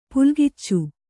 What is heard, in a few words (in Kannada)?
"ಪುಲ್ಗಿಚ್ಚು", Kannada